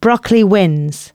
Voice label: announcements, female